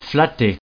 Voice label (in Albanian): "flatter", frengjisht